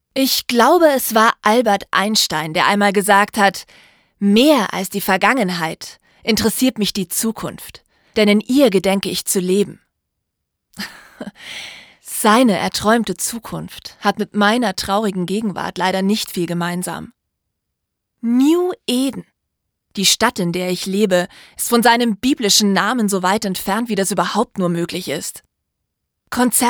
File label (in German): Hoerbuch; Hörbuch (Ich-Erzähler): New Eden